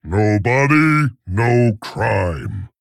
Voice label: Male Tauren